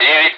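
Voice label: Speech, Russian, air traffic control; Digits; DCS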